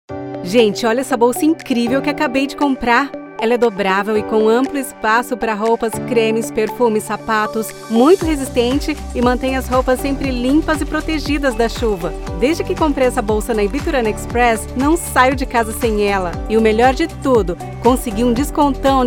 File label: portuguese brazilian announcements; natürliche, vielseitige Stimme und einen neutralen Akzent